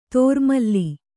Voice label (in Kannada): "ದುರ್ಮಿಲ", Kannada